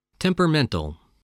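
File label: "temperamental", English word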